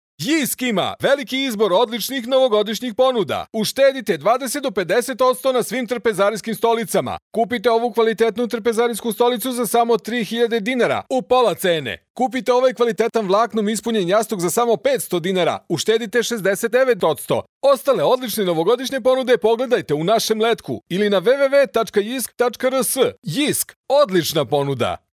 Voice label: male serbian voice over talent sales

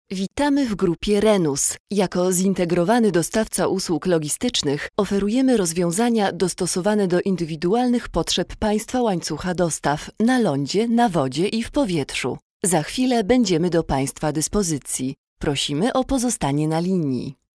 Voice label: female polish voice over narrative

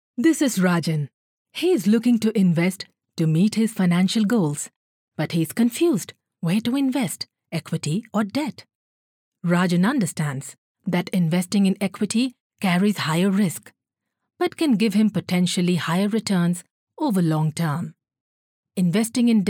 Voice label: female bengali voice over english; bangla

